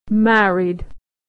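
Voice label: "married", American English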